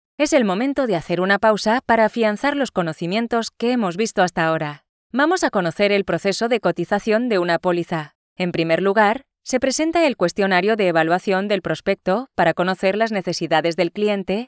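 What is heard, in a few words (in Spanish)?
e-learning, Spain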